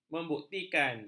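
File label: "membuktikan", Malay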